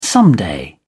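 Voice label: "someday", British English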